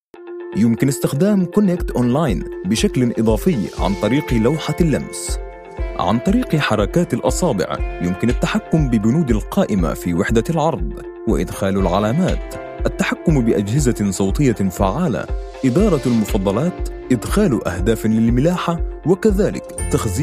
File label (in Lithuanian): (VYR) Arabų balsas; vyras